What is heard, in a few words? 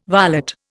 "varlet", Indian